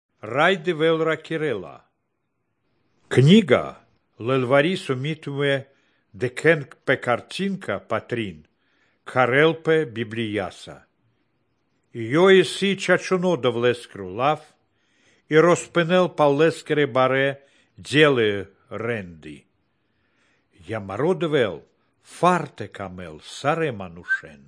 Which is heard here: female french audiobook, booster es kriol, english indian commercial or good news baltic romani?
good news baltic romani